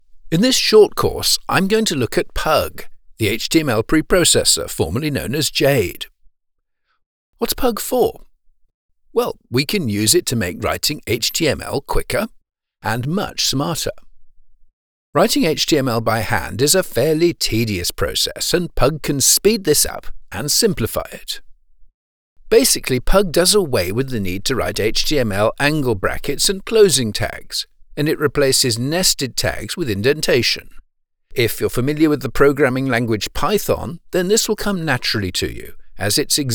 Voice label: eLearning; Pug Course